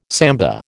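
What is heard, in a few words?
"samba", American English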